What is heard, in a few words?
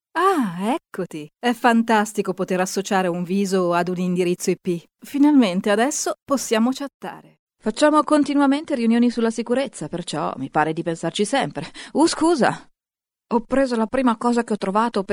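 Characters Mix Middle Age Adult Female Italian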